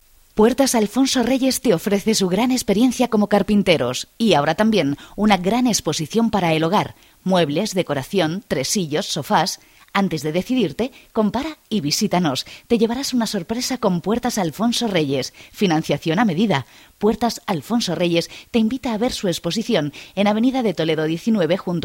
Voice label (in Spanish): locutora